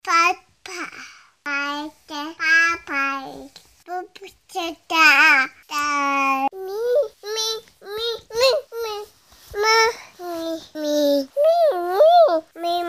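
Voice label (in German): 18 Monate, Tonbeispiel, Sprache, sagt einige verständliche Worte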